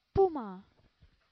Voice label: "puma", English

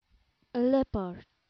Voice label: "leopard", English

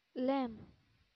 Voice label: "lamb", English

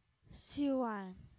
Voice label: "sealion", English

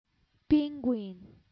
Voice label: "penguin", English

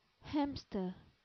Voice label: "hamster", English

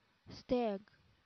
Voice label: "stag", English

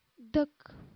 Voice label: "duck", English

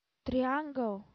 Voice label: "triangle", English

